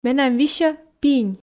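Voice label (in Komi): менам висьӧ пинь, Komi, female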